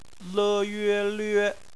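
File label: "lüe", Chinese; phonetics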